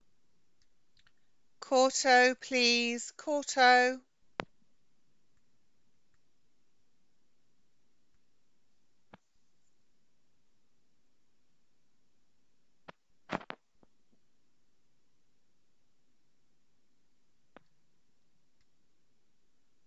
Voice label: Voice over for Performance classes